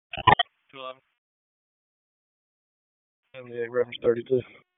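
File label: Raleigh Police Northeast District Dispatch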